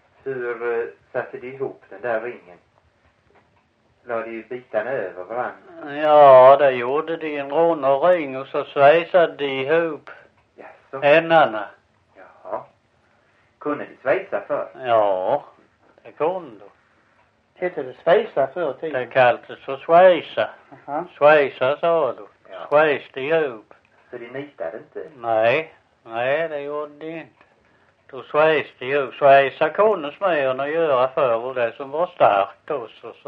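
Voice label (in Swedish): Visseltofta Skåne, svenska sagor dialekter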